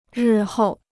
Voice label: "日后", Chinese

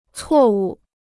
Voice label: "错误", Chinese; cuò wù; mistaken; false; wrong; error

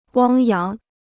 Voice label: "汪洋", Chinese

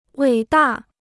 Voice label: "伟大", Chinese

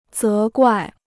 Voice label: "责怪", Chinese

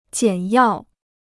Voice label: "简要", Chinese

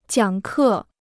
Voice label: "讲课", Chinese

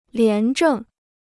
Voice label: "廉政", Chinese

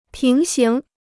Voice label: "平行", Chinese

